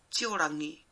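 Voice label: "tiorangi", Māori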